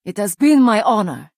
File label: Nord female good game